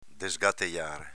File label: "desgatejare", dialetto veneto; v. sgrovigliare